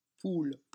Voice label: "poule", phonetics